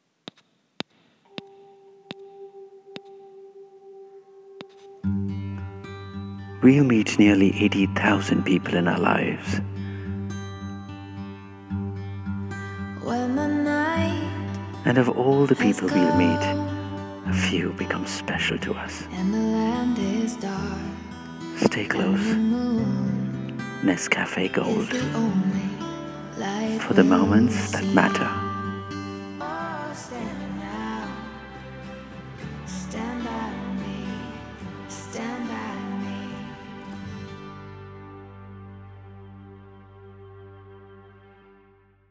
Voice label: TVC; NESCAFÉ